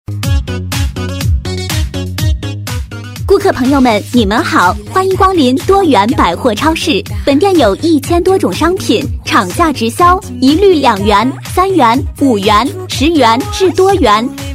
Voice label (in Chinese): 女 促销广告 活泼动感; 多元百货超市